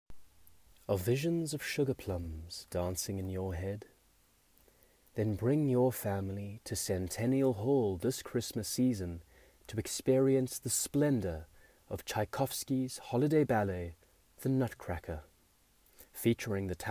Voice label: Soft Sell